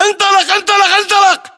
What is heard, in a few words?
order move generic, battle chatter, english